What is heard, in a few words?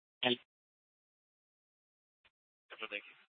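Scottsdale Police South Dispatch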